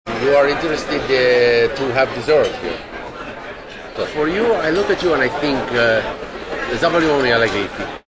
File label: Have Dessert, Male; Talking